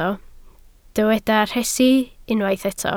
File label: "rhesi", Welsh